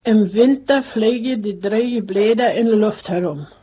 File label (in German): niederpreussisch